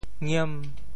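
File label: "ngiem5", Teochew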